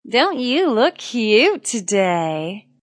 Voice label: Wmn Says Dnt U Lk Cute, Woman Says Don't You Look Cute; Talking